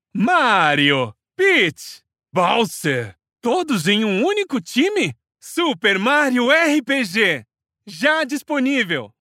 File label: portuguese brazilian others